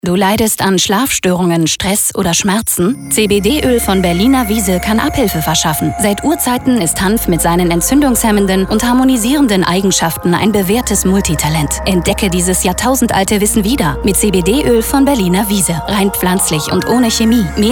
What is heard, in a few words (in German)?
Funk Spot; Berliner Wiese